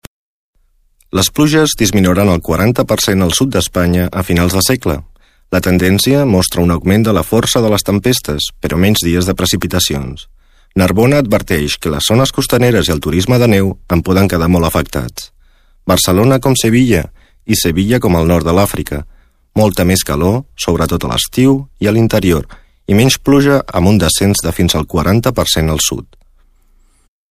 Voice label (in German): Katalonien M